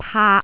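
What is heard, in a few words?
"paak3", Cantonese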